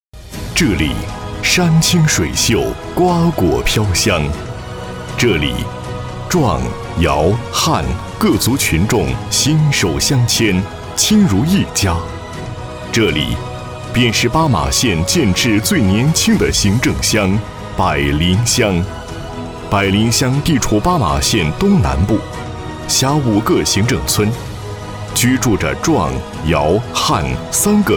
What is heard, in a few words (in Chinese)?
男 宣传片 名族团结